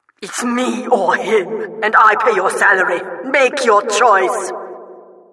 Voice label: announcer mission begins